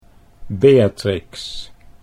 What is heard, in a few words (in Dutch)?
"Beatrix", Dutch